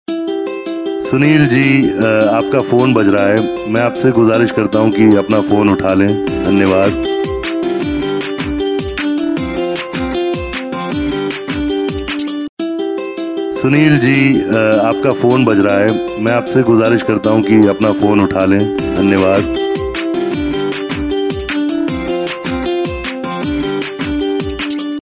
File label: Sunil name ringtone in Bollywood celebrity voice